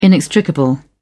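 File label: "inextricable", British English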